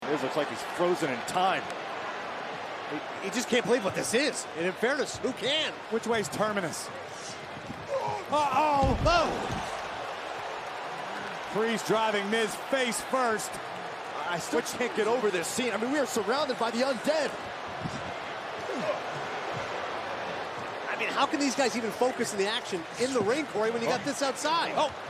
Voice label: commentary; zombie; the announcers called the action while maintaining the proper fear and reverence for the zombie lumberjacks